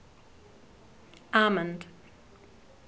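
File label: "almond", New Zealand, female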